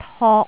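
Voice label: "tok3", Cantonese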